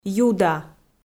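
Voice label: "юда", Komi, female; kpv audio dict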